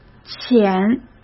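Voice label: "浅", Mandarin Chinese; hsk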